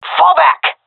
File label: request fallback, seal fem; vo; agents; Source Model Packs; Counter Strike Model Pack; CS2